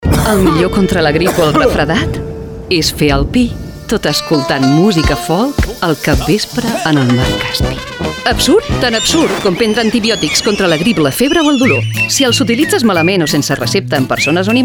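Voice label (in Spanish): campana absurdo cuna catalan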